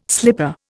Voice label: "slipper", Indian